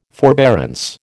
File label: "forbearance", American